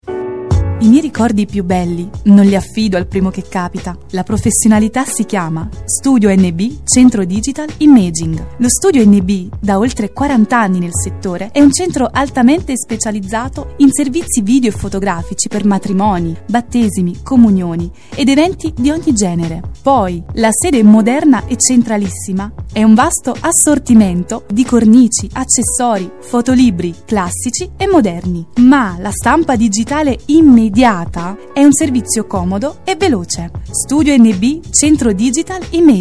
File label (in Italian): istituzionale donna; voci